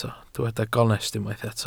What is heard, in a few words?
"gonest", Welsh